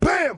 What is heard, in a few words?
"bam", male, Voice; ooh ahh